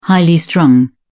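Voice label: "highly strung", British English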